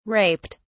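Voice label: "raped", British English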